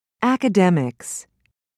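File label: "academics", English, British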